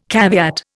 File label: "caveat", Indian